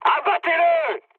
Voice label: attack position mood high, speech fr; War Thunder